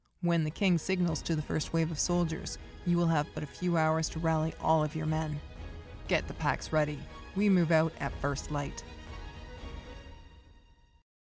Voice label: Strong Female Assertive; voice demos

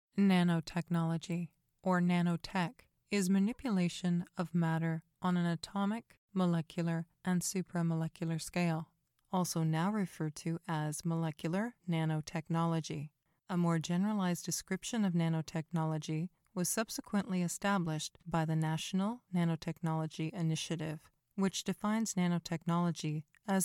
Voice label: Articulate and educational

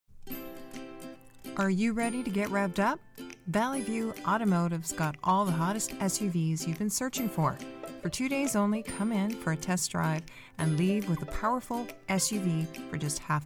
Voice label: Car Commercial; voice demos

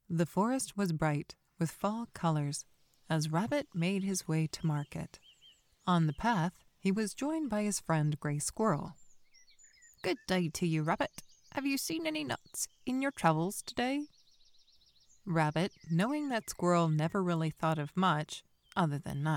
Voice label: Childrens audio book; voice demos

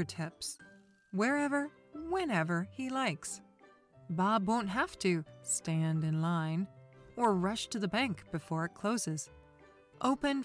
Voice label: Upbeat Internet narration Banking; voice demos

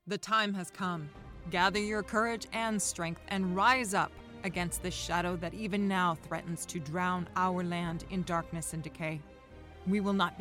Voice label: Epic Battle Speech

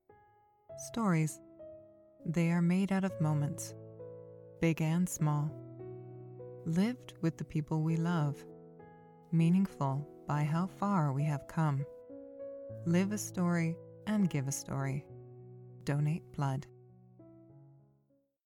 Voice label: Warm Heartfelt sincere, voice; voice demos

